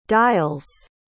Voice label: "dials", pronounce